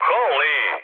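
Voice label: Serbian trick mood med; War Thunder; speech srb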